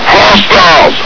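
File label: "hostiles!", male; hl2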